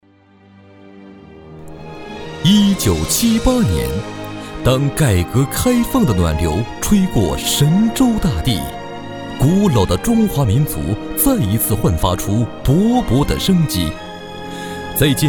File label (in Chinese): 男 专题 大气讲述